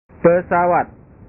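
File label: "pesawat", Indonesian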